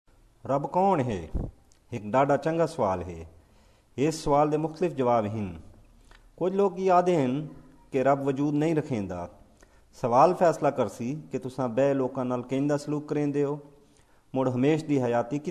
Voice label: Who is God Hindko male